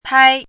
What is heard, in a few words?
"pai1", pinyin